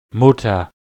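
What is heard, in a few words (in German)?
"mutter", German; klettres; tdeedu; syllab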